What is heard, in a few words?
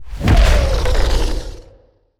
portrait synthetic; Stellaris; machineage